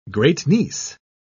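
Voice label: "great-niece", British English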